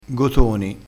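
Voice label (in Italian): "gotoni", crespinese dialettale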